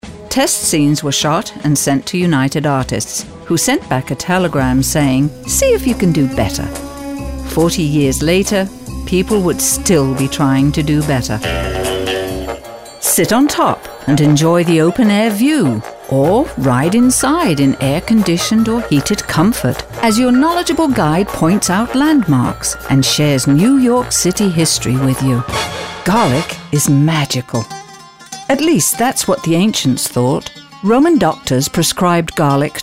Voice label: female Narr, english